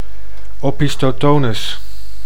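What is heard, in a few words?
"opistothonus", Dutch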